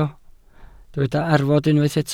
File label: "arfod", Welsh